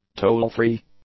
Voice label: "toll-free", English; adjectives